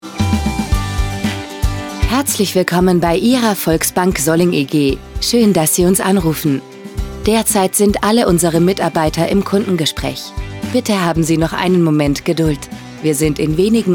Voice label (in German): telefon; volksbank